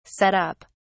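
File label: "set up", British English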